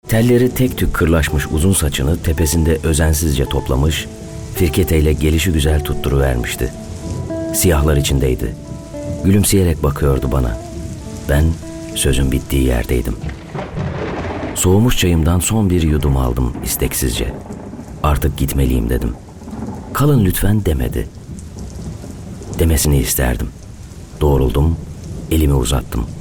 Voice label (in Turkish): kitap, seslendirme; Atilla (Sesli Kitap)